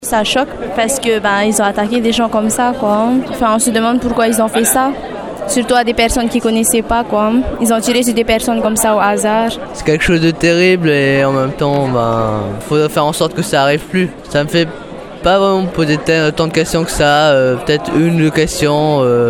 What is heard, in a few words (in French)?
Vox Pop; attentats